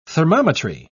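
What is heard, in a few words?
"thermometry", British English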